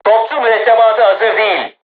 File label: voice message art reloading, turkish